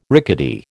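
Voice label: "rickety", American English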